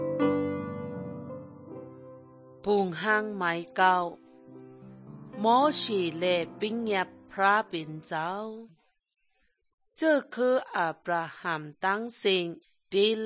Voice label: good news shan eastern, drama; shan thailand bible society nt drama